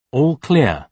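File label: "all clear", British English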